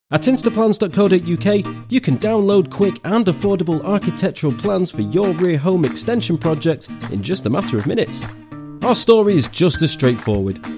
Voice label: Narration Explainer